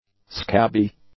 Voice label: "scabby", English; adjectives